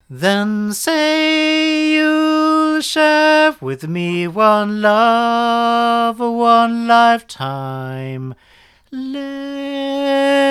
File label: Blue Encore200 male